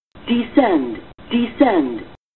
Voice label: Female Descend Descend